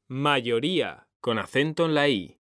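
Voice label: "mayoría", Spanish, male; mekanta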